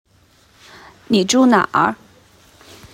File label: Mandarin where you live